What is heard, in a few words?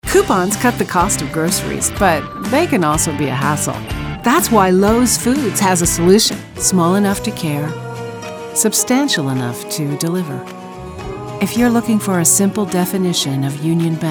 Commercial